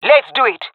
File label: agree, jungle fem epic; vo; agents; Source Model Packs; Counter Strike Model Pack; CS2